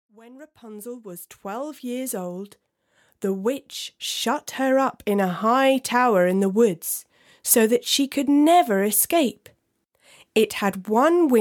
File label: Narration